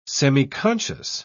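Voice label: "semiconscious", English, British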